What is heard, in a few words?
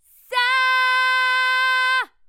clean Voice; roughness